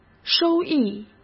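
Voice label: "收益", Mandarin Chinese; hsk; chinese-flashcards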